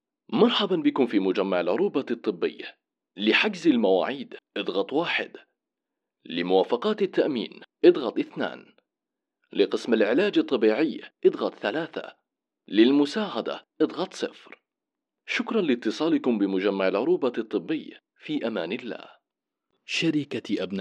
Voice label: IVR Arabic